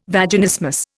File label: "Vaginismus", Indian